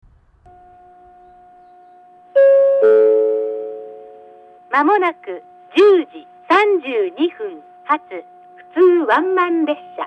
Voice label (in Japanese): 上り接近予告放送; 10:32発普通ワンマン松本行の放送です。